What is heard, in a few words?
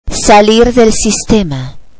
"log off to", Spanish